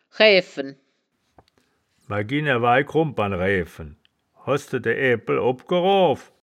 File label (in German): "raeaeffen", wittlich